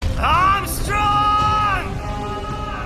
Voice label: yells; raiden; armstrong

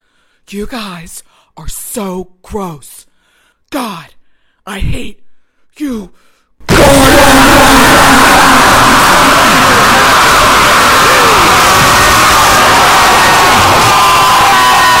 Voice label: god i hate you jumpscare; gordon

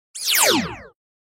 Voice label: radio broadcasting team filipino group

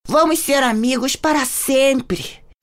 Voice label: voice portugues brasileiro brazilian portuguese; amumu; league of legends

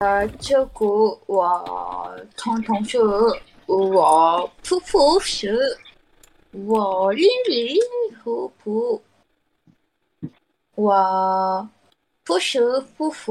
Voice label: chinese

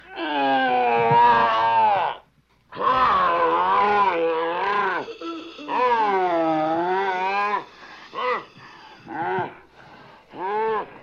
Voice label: in pain; pazuzu

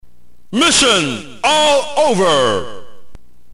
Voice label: narrator

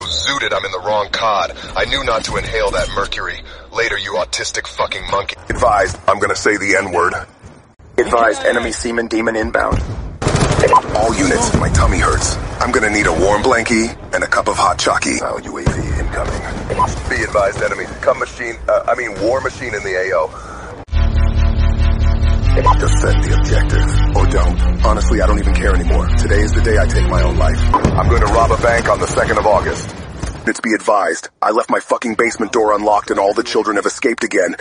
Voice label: announcer compilation

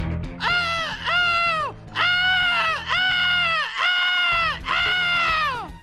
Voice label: voice acting; chaos wars

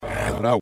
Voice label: guarda reverse

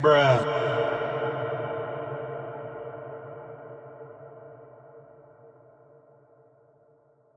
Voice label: "bruh" echoed

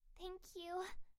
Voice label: thank you cute anime voice

